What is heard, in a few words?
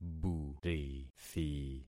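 "burithi", concatenated syllables; Affixing experiment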